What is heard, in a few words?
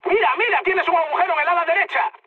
right wing, mood high, speech; War Thunder